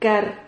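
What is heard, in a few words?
"car", Spanish syllable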